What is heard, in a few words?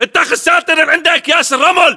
order cover sandbags, battle chatter, english